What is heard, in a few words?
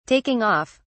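"taking off", British English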